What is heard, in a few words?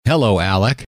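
hello, male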